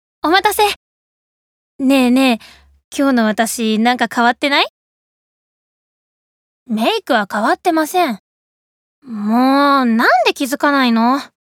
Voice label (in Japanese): 髪型を変えた高校生